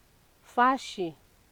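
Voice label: "fashi", Nigerian Pidgin, female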